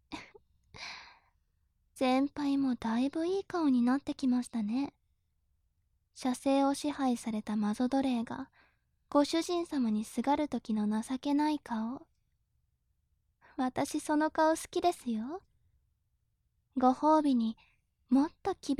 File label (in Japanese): 調教中音声, ASMR